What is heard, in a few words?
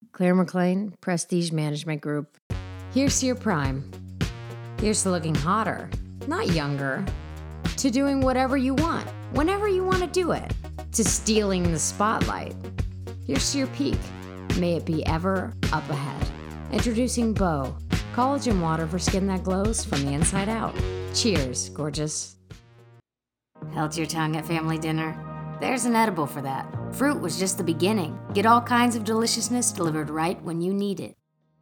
Voice Over